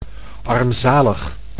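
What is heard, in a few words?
"armzalig", Dutch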